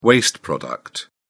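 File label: "waste product", British English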